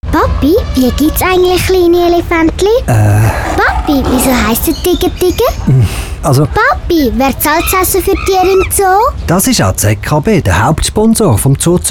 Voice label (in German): radiospot; zkbzoo